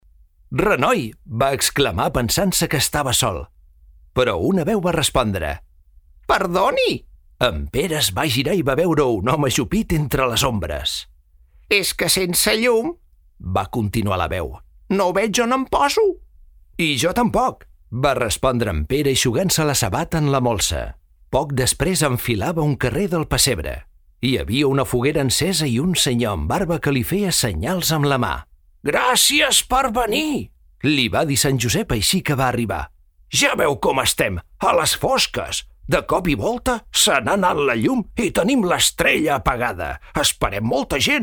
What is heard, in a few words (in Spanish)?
interpretación catalán